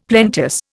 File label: "plenteous", Indian; Dictionary